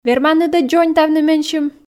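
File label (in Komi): верманныд-ӧ дзоньтавны менсьым, Komi, female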